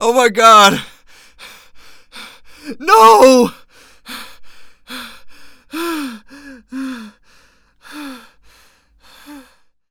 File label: Panic; zps; Humans; HumanPunk